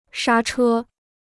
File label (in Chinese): "刹车", Chinese